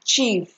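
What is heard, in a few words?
"chief" Nigerian Pidgin, female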